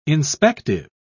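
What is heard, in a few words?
"inspective", British English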